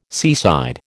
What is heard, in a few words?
"seaside", American